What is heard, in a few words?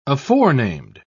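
"aforenamed", British English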